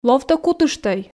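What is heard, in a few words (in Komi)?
ловтӧ кутыштӧй, Komi, female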